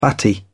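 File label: "batty", British English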